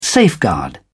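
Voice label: "safeguard", English, British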